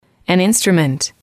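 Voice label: an Instrument, english, female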